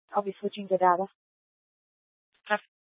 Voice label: Police Dispatch, scanner; Police 1 Dispatch